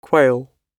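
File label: "quail", English; oxford api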